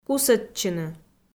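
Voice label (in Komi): "кусӧдчыны", Komi-Zyrian, female; kpv audio dict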